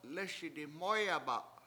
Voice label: lEESi diMOOyaba7 we two ran by, Washo